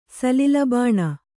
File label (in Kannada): "ಸಲಿಲಬಾಣ", Kannada